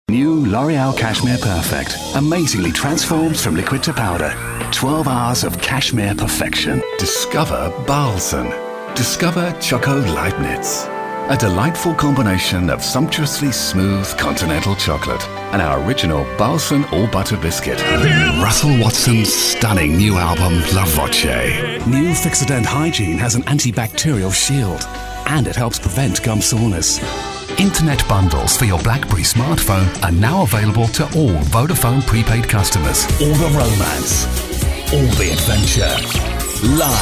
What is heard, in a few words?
Luxury Warm Voiceover